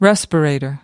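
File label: "respirator", American English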